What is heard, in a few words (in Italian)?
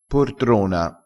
"portrona", crespinese